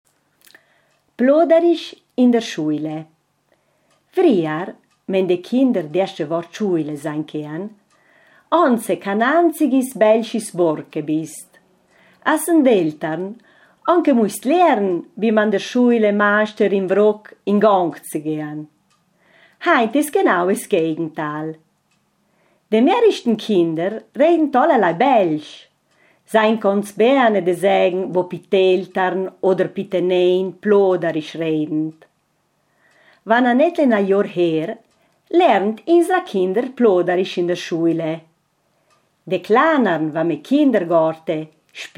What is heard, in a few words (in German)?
plodarisch in der schuile